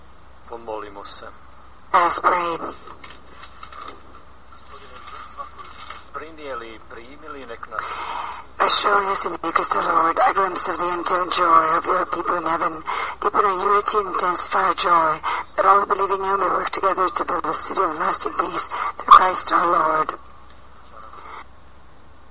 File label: Prayer and Announcement